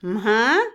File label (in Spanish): anunciar, avisar, Otomí, mujer; Habla; INALI